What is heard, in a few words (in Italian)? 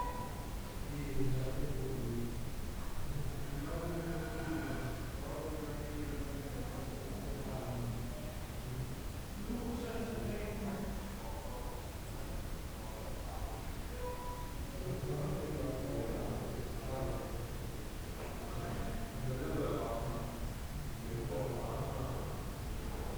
possibile voce straniera e respiro; Castello del Catajo-Battaglia